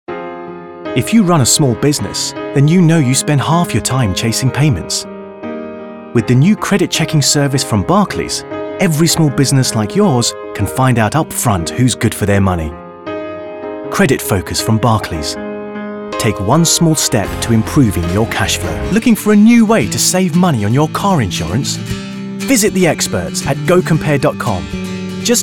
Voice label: commercial